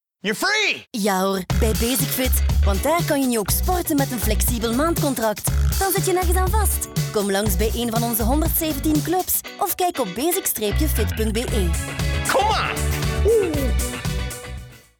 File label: Flemish radio; BasicFit